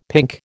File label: "pink", American